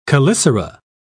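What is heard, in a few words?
"chelicera", British English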